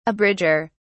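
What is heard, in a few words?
"abridger", English, British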